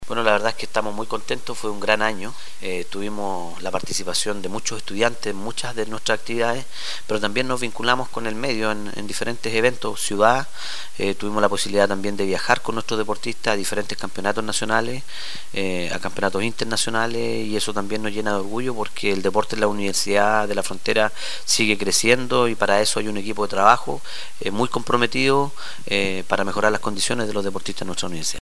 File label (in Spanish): Cuña